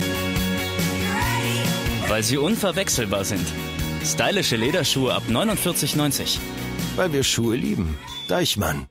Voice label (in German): werbung voiceover image produkt spot autumn winter; deichmann